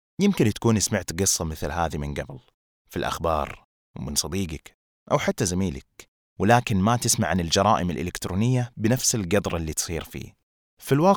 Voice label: Saudi Narration